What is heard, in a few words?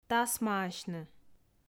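"тасмаасьны", Komi, female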